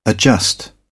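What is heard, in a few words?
"adjust", British English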